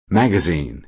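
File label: "magazine", American English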